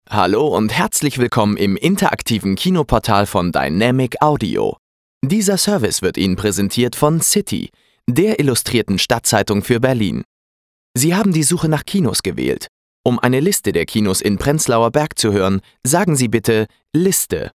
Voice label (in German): telefon, deutsch maenner; sprecher